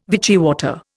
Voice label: "Vichy water", Indian